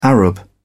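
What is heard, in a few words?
"arab", British English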